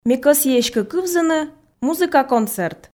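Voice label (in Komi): ме кӧсйи эськӧ кывзыны музыка концерт, Komi, female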